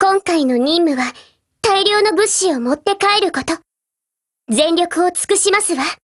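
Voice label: OPERATION BEGIN Japanese, Voice; GF Alarm; Desert Eagle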